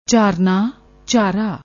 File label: "jhaarna", Punjabi